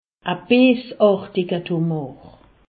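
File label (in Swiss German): "beesartiger", Alsatian; medecine; tumeur maligne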